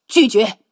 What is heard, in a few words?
Speech Quick Commands No Way; HuaQing